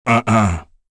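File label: Vox Deny, English; Dakaris; kingsraid; voices heroes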